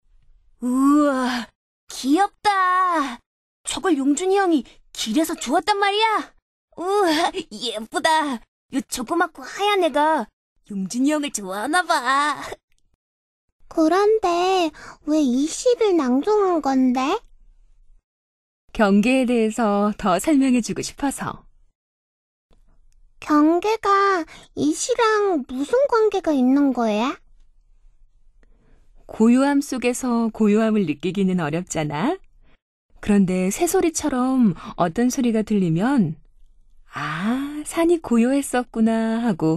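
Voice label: Korean female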